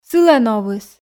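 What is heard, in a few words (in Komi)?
сылӧн овыс, Komi, female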